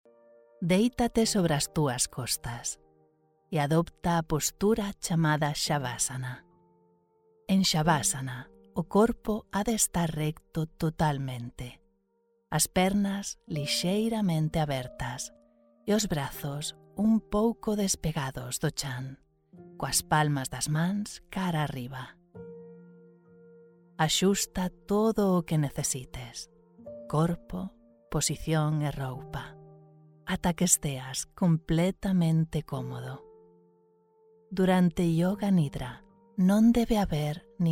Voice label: GALICIAN RELAXED, spanish commercial voiceover

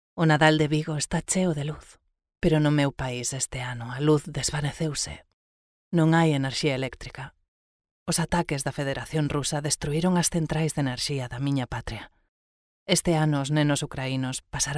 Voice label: Galician natural

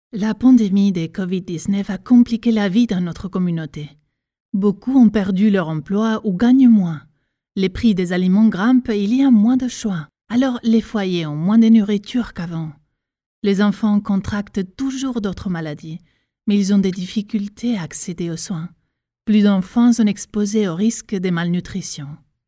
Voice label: NARRA UNICEF MALNUTRITION AFRIQUE

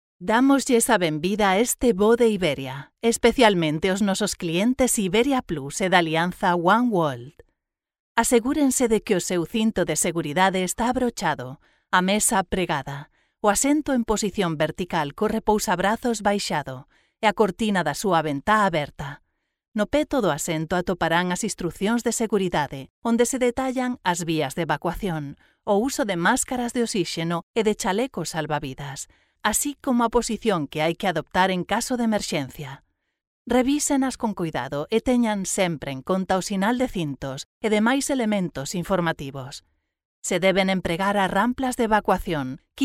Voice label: GALICIAN CONFIDENT, spanish commercial voiceover

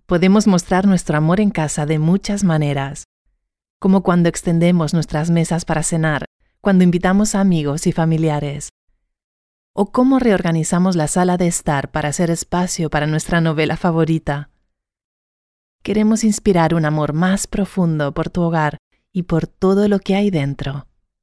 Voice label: International Furniture Retailer Spanish

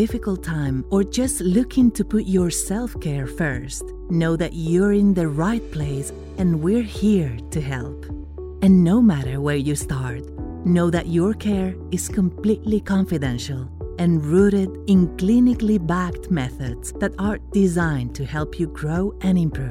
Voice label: HEALTH AND WELLNESS ENGLISH

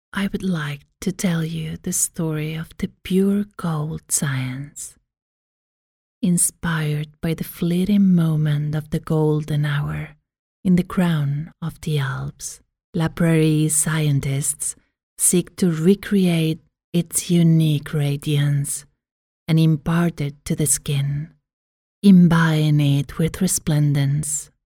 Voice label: ETHEREAL SOPHISTICATED

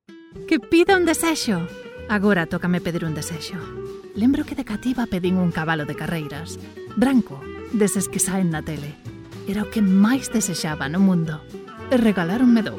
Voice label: GALICIAN EMOTIONAL

